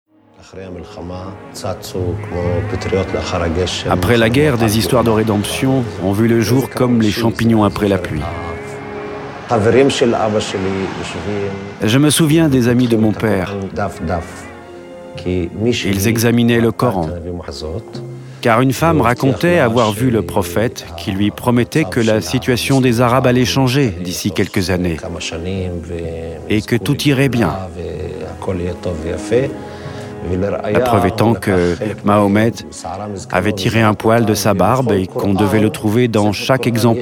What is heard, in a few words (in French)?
DOCU Voice Over; Bourgogne; TV5; La Palestine